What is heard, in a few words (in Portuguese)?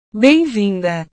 "bem vinda", portugues